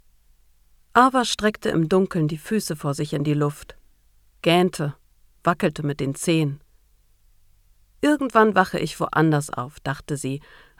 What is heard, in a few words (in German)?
Hoerbeispiel Historisch